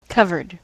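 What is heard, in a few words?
"covered", US English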